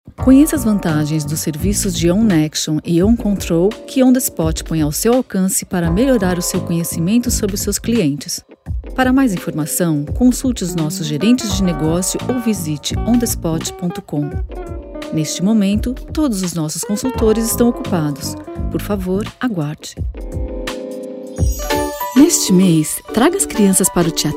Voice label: Portuguese - Brazillian